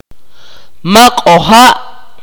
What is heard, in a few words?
"swim", Akateko